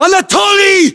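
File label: "anatoly", battlechatter, Russian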